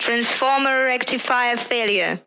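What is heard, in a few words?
"DC rectifier failure", English; Ka-50; Almaz; DCS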